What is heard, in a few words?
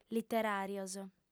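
"literòrios", female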